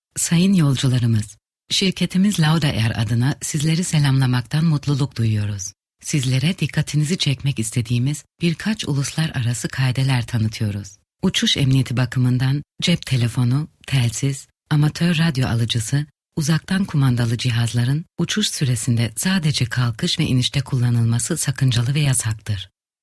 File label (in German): Tuerkei W